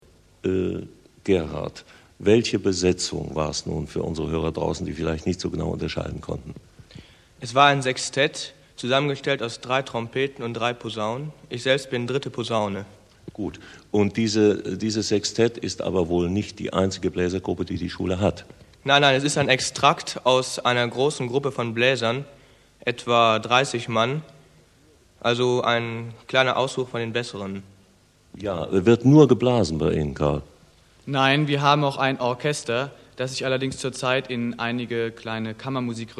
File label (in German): schulfunk